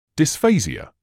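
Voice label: "dysphasia", British English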